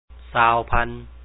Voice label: 20000, Lao; ຊາວພັນ; sa2aw phán